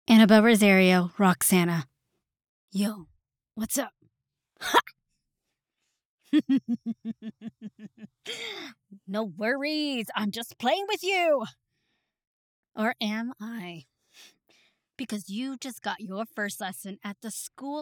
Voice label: Female